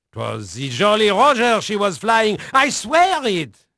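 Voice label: French male, voice; Pirates Of The Caribbean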